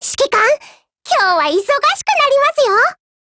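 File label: HELLO, Japanese; GF Alarm; BrenTen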